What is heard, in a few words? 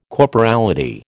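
"corporality", American English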